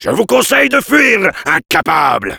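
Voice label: taunts, French; Heavy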